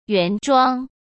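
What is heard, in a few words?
"原装", Mandarin Chinese; hsk; chinese-flashcards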